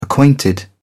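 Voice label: "acquainted", British English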